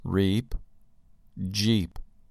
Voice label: "reap" "jeep"